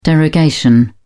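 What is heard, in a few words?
"derogation", British English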